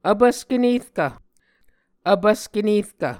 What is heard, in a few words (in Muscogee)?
"vpvske nerkv", Muscogee